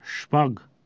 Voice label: "shpag", Pashto

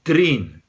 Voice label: "trin", Romani

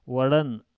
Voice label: "waLan", Pashto

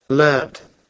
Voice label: "let", Proto Germanic

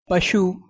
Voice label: "pashu", Punjabi

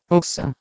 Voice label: "uhsa", Proto Germanic

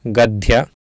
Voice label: "gadhya", Sanskrit

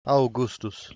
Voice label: "Augustus", Latin

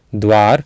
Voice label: "dvar", Sanskrit

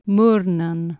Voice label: "murnan", Anglo Saxon

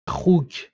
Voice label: "xuuC", Persian

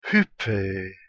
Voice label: "hyphe", Ancient Greek

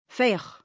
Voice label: "feoh", Anglo Saxon

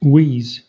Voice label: "wiz", Proto Germanic

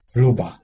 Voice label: "luba", Proto-Germanic

